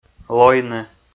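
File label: "лойны", Komi, male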